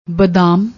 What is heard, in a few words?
"baddam", Punjabi